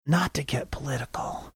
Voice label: whispering not to get political

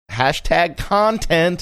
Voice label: saying hashtag content sing songy

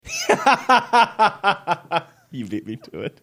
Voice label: laughing saying you beat me to it

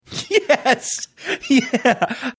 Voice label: laughing saying yes yeah

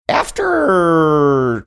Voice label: saying after funny drawn out

